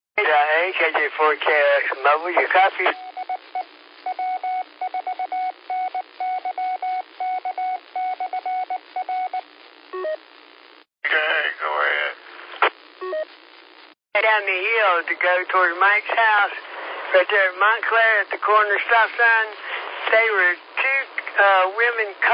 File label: qso rec simplex logic